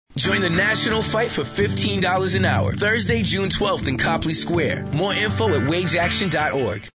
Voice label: Radio; WageAction